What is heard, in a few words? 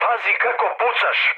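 Serbian player friendly fire mood low; speech; War Thunder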